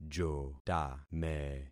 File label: "jotame", concat syllables; Affixing experiment